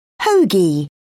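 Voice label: "hoagie", British English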